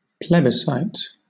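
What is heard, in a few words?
"plebiscite", British English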